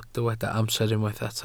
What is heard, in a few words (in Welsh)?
"amser", Welsh